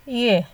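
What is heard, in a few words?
"hear" Nigerian Pidgin, female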